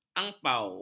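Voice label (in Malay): "angpau", Malay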